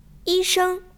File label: "yi1sheng1", zhongwen